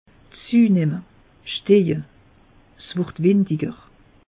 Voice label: "zuenemme", alsace; meteo

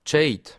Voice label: "scheid", Alsace

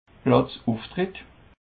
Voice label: "platzuftritt", Alsace

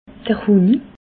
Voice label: "honi", alsace

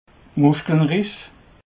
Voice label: "muschkelriss", alsace

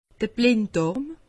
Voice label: "blinddarm", alsace; medecine

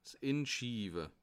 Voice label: "inschiwe", alsace

